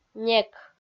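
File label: "нек", Komi-Zyrian, female; kpv audio dict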